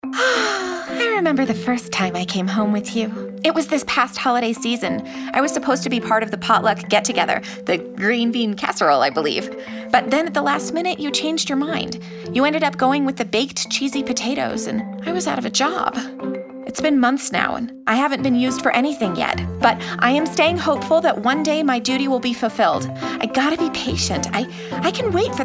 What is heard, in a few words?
commercial EFAA Canned Veggies